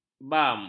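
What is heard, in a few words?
"bam", Malay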